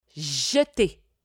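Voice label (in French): "jeter", French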